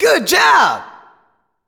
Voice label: "Good Job" (Echo), announcer; DDR Extreme SFX; evaluation a; Dance Guru's DDR Archive